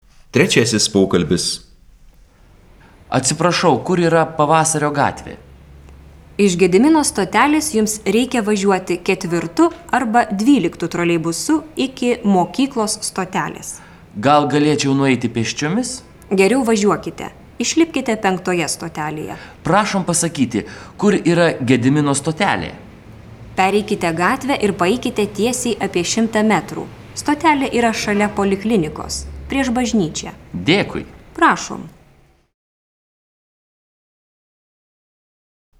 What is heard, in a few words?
Dialog